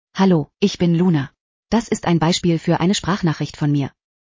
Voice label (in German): Luna Sprachnachricht Beispiel